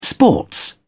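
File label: "sports", British English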